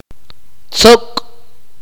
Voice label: "cut", Akateko

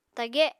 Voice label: "tage", Apatani

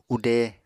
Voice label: "ude", Apatani; Material Culture

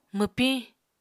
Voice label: "mwpiñ", Apatani

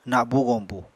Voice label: "nabu-goñbu", Apatani

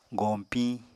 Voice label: "goñpiñ", Apatani

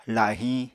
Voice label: "lahiñ", Apatani

